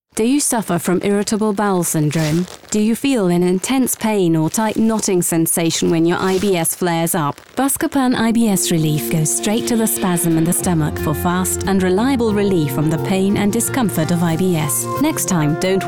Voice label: EN Werbung; Buscopan